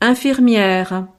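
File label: "infirmiere", French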